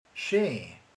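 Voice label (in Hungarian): "szép", Hungarian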